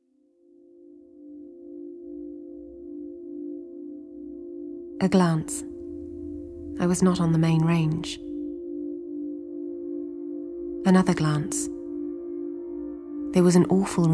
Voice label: narration